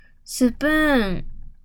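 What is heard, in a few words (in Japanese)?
"スプーン", Japanese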